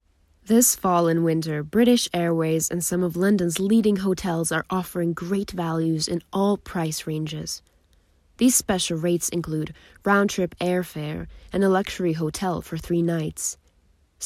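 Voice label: Lithuanian, Airline announcement US; GoLocalise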